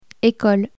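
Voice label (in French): "ecole", French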